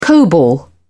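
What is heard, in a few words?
"cobol", British English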